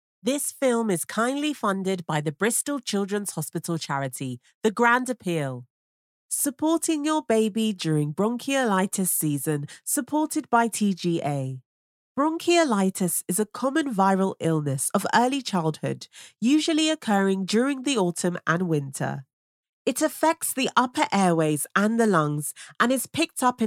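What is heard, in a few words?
English British, female, voice over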